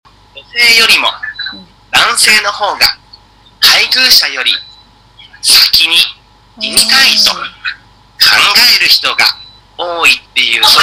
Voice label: chinese